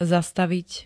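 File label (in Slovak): "zastavit", Slovak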